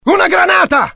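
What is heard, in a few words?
fear grenade, IT; mohaa; dialogue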